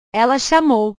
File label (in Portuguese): "ela chamou", portugues; chamar; portugues/verbos